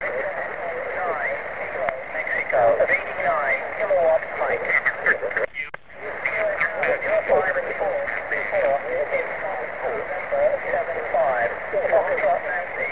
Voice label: qso